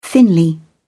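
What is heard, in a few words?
"thinly", British English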